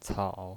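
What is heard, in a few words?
"cao", Mandarin Chinese, third tone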